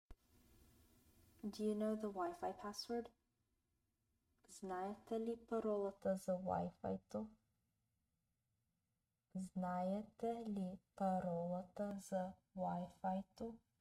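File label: Do you know the Wi-Fi password, Bulgarian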